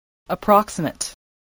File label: "approximate", English